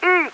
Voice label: cops, English; Driver